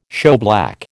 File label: "shoeblack", American